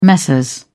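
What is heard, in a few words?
"messrs", English, British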